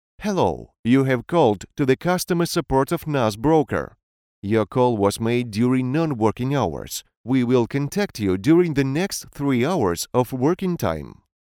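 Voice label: Russian Russia male English; russian voice over talent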